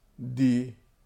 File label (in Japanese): "dii", Nishihara